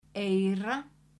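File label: "Eyra", Icelandic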